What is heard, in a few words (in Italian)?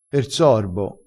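"sorbo", vocabolario dialettale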